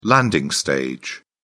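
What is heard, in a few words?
"landing stage", British English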